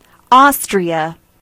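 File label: austria, Swedish; gcompris-data; geography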